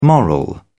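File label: "moral", British English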